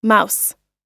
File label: "mouse", pronunciation